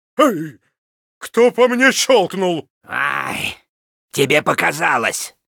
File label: Pissed; Heroes of the Storm; LostVikingsBase